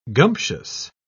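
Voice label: "gumptious", British English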